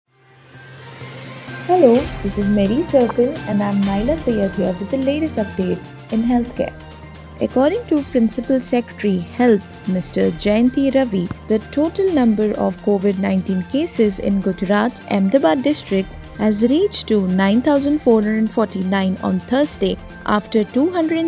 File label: english news